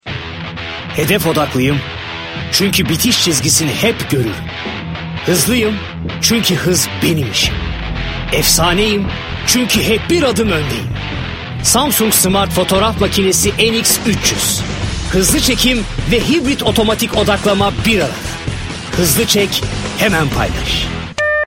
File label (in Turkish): reklam kararli; samsung